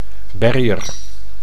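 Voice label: "barrier", Dutch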